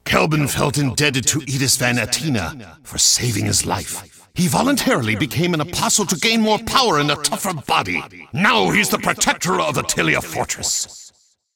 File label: cinematic voice; lineage2